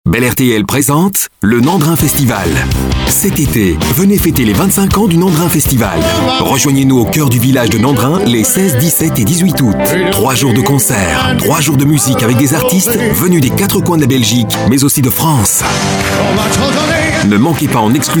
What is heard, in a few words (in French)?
spot voix